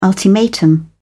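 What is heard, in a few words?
"ultimatum", British English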